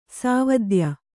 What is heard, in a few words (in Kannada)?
"ಸಾವದ್ಯ", kannada